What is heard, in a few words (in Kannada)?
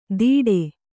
"ದೀಡೆ", kannada